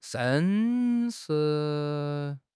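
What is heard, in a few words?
"sheng1", Chinese; 汉语口语新时代-初级下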